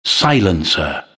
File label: announcer; Trine; Silencer